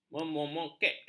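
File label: "memoketkan", Malay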